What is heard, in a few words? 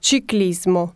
Italian race cycle; samsung YP-U5; Audio User Interface